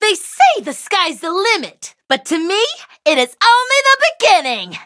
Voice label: levelup en